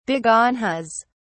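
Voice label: "begonias", en, uk British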